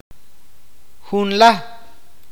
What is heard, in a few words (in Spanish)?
"once", awakateko